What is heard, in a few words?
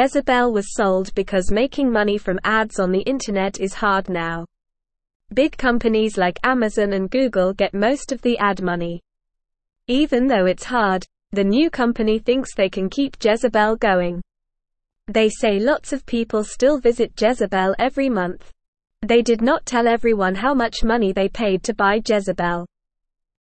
English Newsroom Beginner NORMAL Reading; Jezebel is Coming Back New Company Buys It